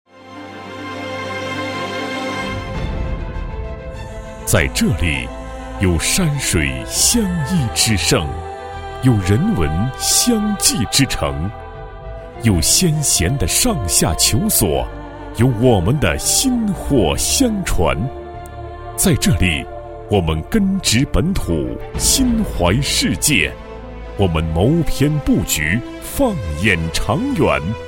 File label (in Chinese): 男 专题, 国语男声配音 磁性; 轻工业CEC集团